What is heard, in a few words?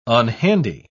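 "unhandy", British English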